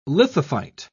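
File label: "lithophyte", British English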